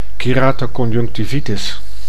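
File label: "keratoconjunctivitis", Dutch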